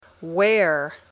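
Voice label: "wear", English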